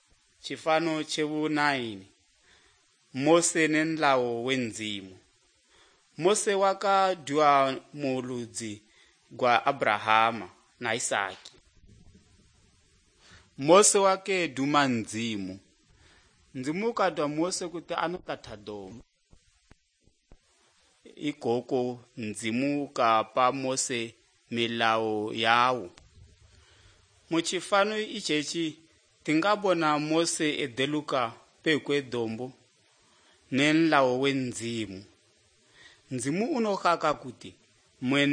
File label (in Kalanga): good news kalanga lilima, drama